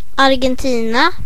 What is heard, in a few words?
"argentina", en; gcompris-data; geography